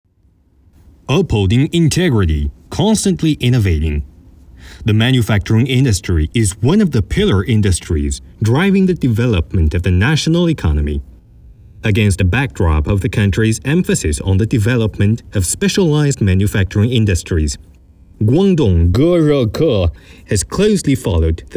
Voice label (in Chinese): 英文宣传片平实介绍